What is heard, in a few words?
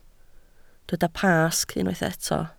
"Pasg", Welsh